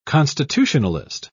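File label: "constitutionalist", English, British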